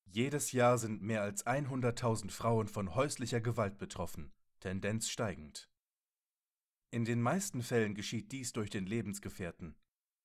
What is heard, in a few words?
German, Male